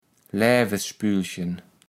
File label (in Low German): "Lävespülchen", kaevels platt